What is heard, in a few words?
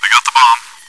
chitchat, bot, voice commands; svencoop